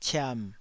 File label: "ciam3", taiwanese